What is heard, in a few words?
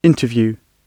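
"interview" spoken, British English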